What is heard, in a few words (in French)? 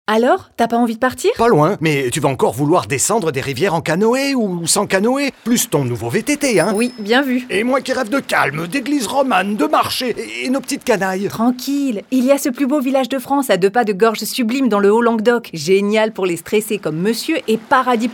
spot radio; minervoix caroux escapades mai juin 2021